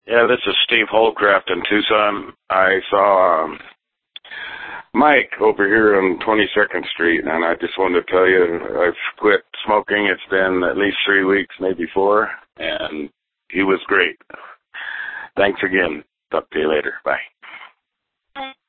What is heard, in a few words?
voicemail